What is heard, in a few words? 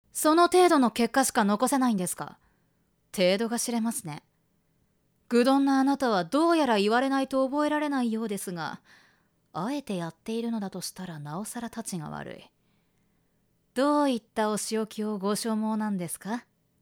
boy